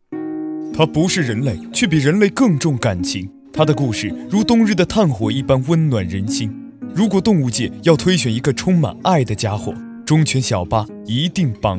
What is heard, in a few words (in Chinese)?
推荐解说深情感人力量